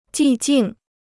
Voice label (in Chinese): "寂静", Chinese